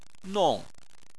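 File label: "nong" fourth tone, Chinese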